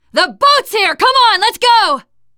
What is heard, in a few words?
arrive boat initial, teengirl; player; survivor; voice